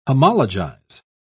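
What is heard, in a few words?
"homologize", British English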